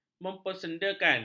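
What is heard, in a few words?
"mempersendakan", Malay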